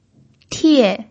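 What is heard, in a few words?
"tie", Mandarin Chinese pinyin, fourth tone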